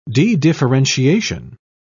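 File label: "dedifferentiation", British English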